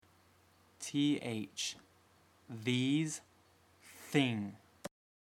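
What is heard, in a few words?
"TH", English alphabet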